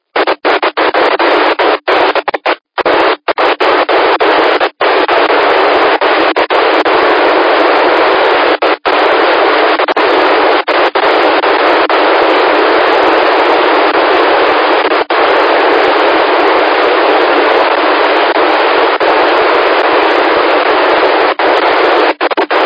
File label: qso rec repeater logic